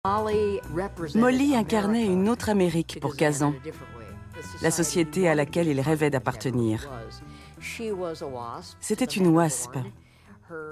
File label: Voice Over